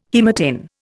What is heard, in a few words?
"haematin", Indian